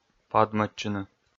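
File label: "падмӧдчыны", Komi, male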